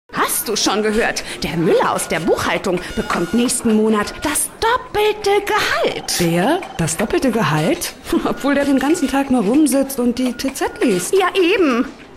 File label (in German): Werbung Aufgeregt